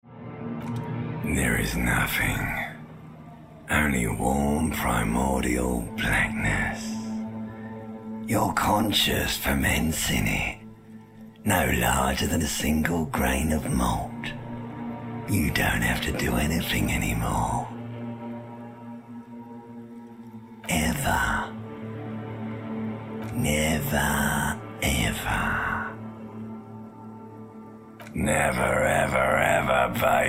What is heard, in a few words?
ancient reptilian brain voiceover